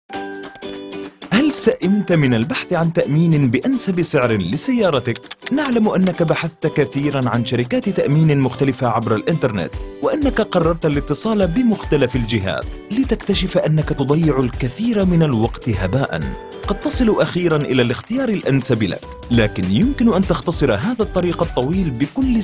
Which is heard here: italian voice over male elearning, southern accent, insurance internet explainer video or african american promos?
insurance internet explainer video